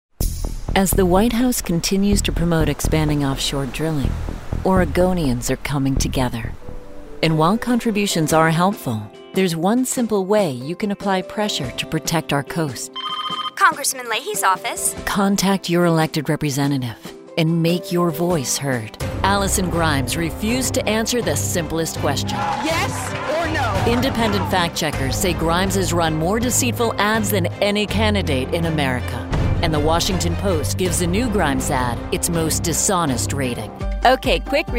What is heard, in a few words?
Female, political